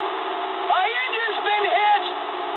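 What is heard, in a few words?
English damaged engine mood medium; speech; War Thunder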